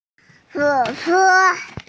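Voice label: "huo3che1", Mandarin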